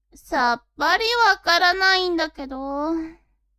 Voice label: woman